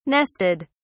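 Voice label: "nested", British English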